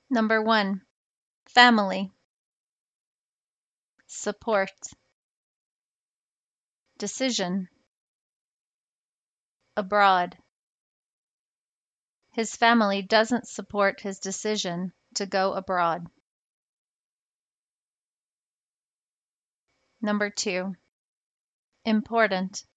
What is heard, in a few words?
schwa sentences am